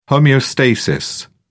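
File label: "homeostasis", British English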